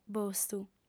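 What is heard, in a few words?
"bostu", female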